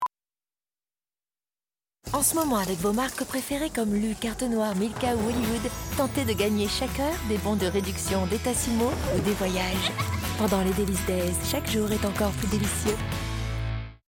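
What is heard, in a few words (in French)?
Posee invitante, voix off féminine; DelicesDays Billboard TV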